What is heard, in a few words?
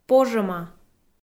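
"пожӧма", Komi, female; kpv audio dict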